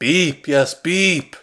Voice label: Voices exasperated; The Looker